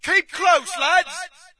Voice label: brit stick together; player; Source Engine; dod

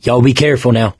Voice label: warn careful, survivor voice mechanic; source engine; left 4 dead 2

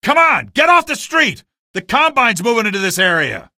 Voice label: get off street, male; video games; npc; source engine; episodic; episode 1

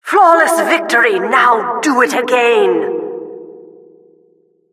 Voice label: announcer flawless victory

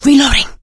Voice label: reloading quiet, survivor voice; source engine; left 4 dead 2; producer

